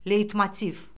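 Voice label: "leytmotiv", Azerbaijani; Orfoqrafiya Lüğəti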